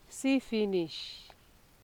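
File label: "see finish", Nigerian Pidgin, female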